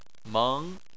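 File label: "meng", Chinese, second tone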